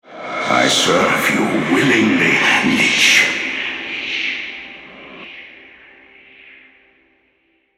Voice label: Altar Capture, Male; Karthus; en US